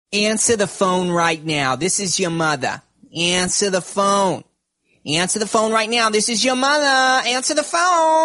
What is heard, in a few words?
this is your mother call tone ringtone